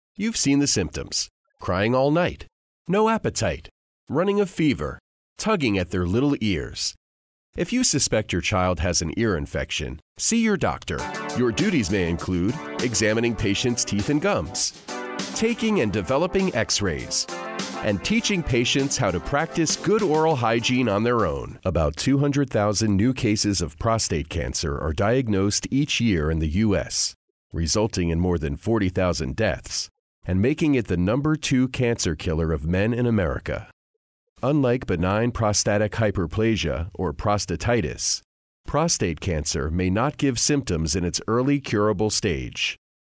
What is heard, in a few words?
Narration Medical Male